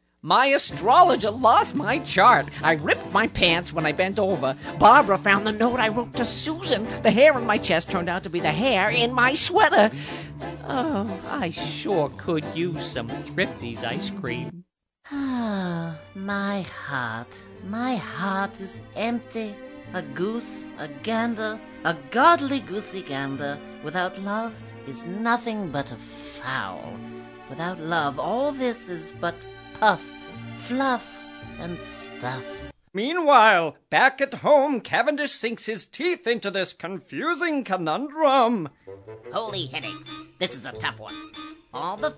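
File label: character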